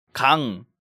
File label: "Gang", Korean